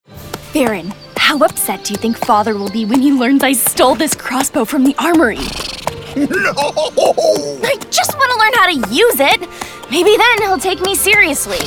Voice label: vo animation; global voice acting academy